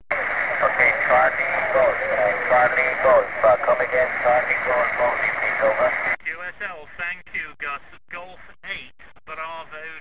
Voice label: QSO